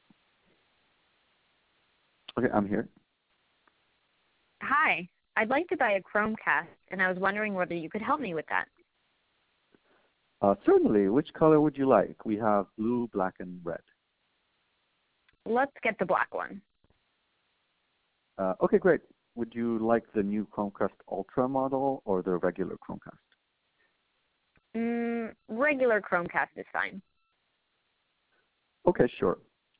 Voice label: commercial mono; nodejs-speech